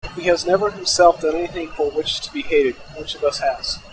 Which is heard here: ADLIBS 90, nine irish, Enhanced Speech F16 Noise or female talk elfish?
Enhanced Speech F16 Noise